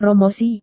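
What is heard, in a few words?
"promosi", Indonesian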